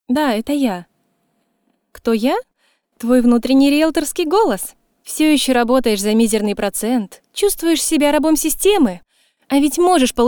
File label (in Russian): КРЕАТИВНЫЙ АВТООБЗВОН КЛИЕНТОВ; СИТИ НЕДВИЖИМОСТЬ